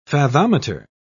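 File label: "fathometer", British English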